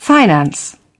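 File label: "Finance", British English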